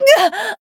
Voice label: damaged, jp